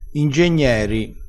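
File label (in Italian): "ingegneri", vocabolario dialettale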